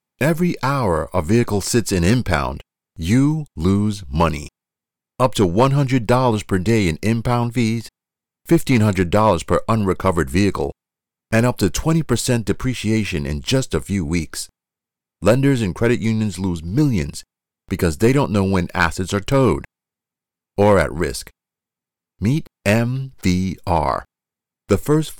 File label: Explainer video for finance industry